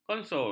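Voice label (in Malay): "konsol", Malay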